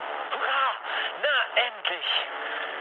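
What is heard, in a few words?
German enemy detected mood med, speech; War Thunder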